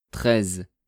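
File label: "treize", French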